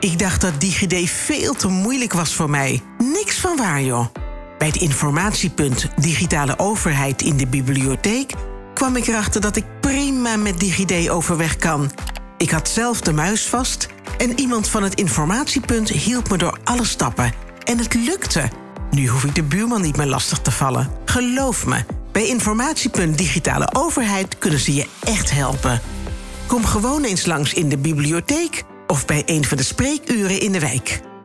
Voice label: COMMERCIAL; BIBLIOTHEEK EINDHOVEN